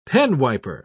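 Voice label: "penwiper", British English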